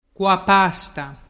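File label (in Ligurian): "cuapasta", Ligurian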